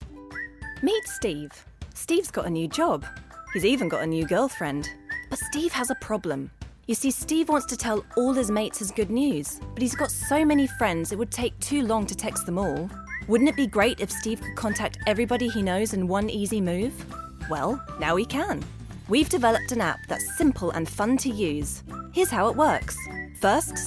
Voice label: Explainer Video